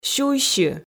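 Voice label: "сюйсьы", Komi, female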